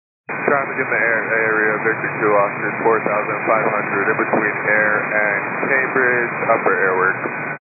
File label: 122 925MHz, scanner radio